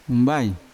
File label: "umbai" needle, Yawa